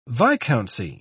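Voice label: "viscountcy", English, British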